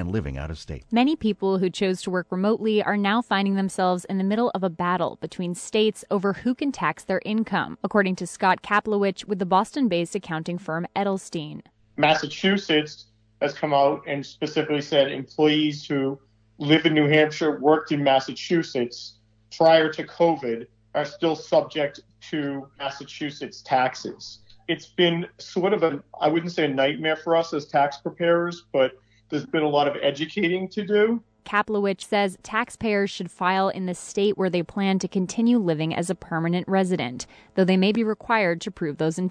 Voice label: GBH Radio Recording